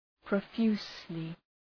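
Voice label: "profusely", English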